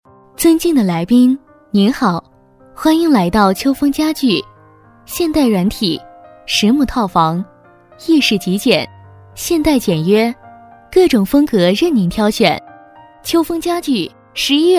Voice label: 女 广告配音 家具城 温馨; 温柔知性

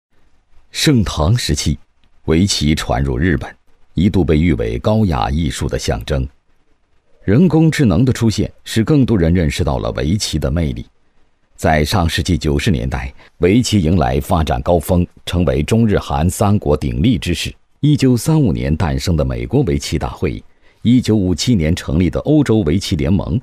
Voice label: 男 宣传片旁白 稳重纪实解说; 围棋; 高端内涵 自然诉说; 宣传片旁白《围棋》-稳重纪实解说

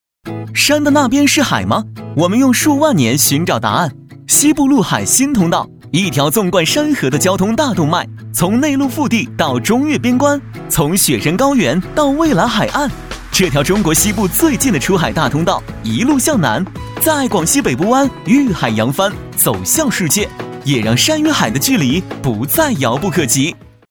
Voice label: 男-MG 开心解说, 全能型 轻松活泼

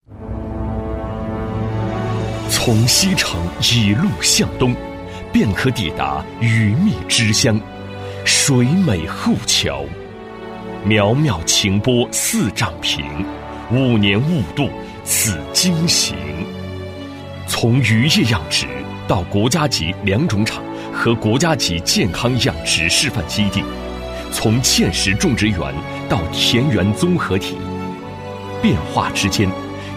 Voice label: 男 城市宣传片 大气沉稳; 男25-年轻激情; 大气浑厚

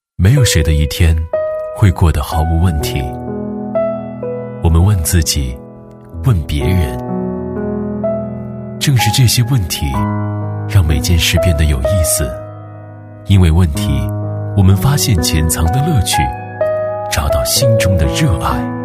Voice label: 男 走心旁白 温暖走心; 世界的问题; 男D8-硬朗力度

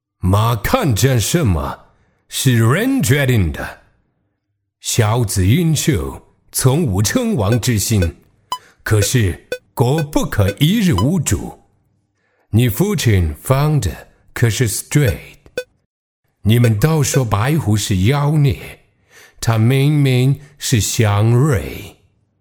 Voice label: 男 角色模仿 口音; 中英双语; 轻松活泼; 封神商王; 费翔口音

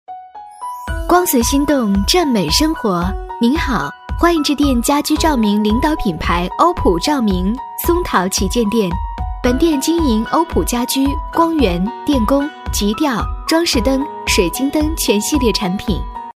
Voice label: 女 电话彩铃【年轻甜美】; 甜美亲切

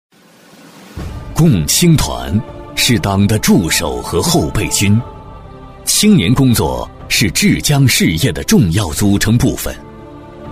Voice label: 男, 汇报片, 大气 正式; 高端品质; 大气浑厚

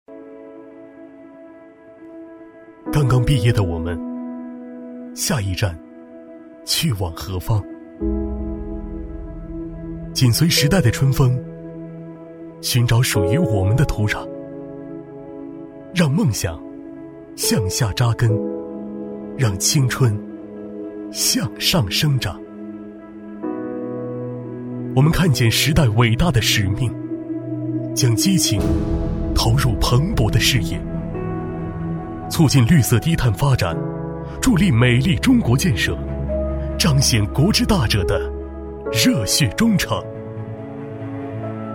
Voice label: 男 走心宣传片; 激情大气; 大气浑厚; 中化环境

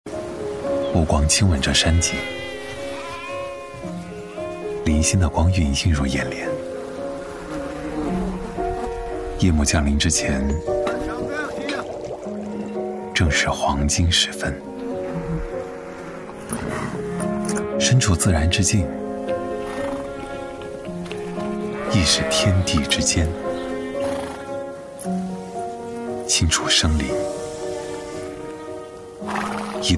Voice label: 男 舒展温暖 相机广告; Sony

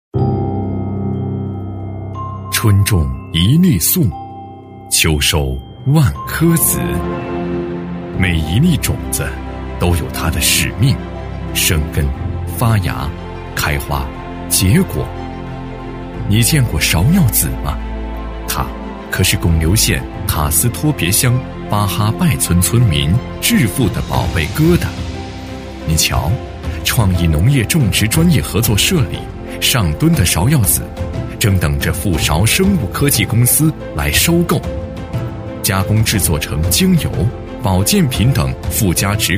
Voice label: 男 政府宣传片 舒缓深情; 高端深情; 大气浑厚; 芍药花扮靓乡村振兴路

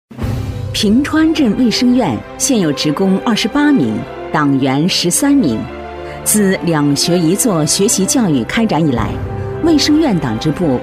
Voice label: 女 党建专题 党建工作汇报, 沉稳大气

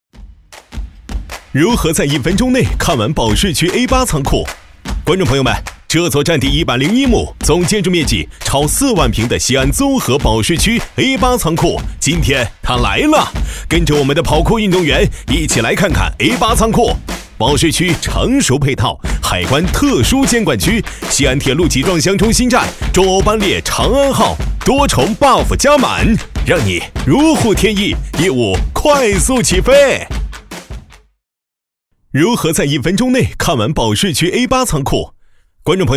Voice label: 男 保税区仓库 激情解说风格; 年轻浑厚 素人自然

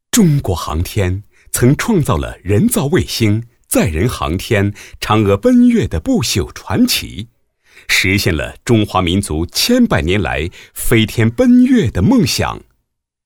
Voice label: 男 专题片; 成熟稳重; 大气浑厚; 中国航天

